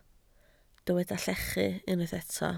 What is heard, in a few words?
"llechu", Welsh